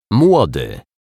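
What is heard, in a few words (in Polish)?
"młody", Polish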